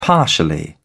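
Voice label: "partially", British English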